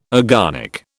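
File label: "agonic", British English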